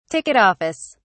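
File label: "ticket office", British English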